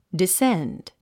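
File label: "descend", English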